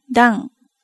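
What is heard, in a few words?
"dan", Japanese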